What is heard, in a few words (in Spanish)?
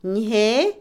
vestirse, Otomí, mujer; Ropa; INALI